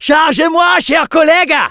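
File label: Medic activate charge, French; "Charge-moi, cher collègue !"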